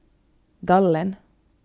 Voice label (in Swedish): gallen utan accent, uttal av finlandssvenska; finlandssvenska efternamn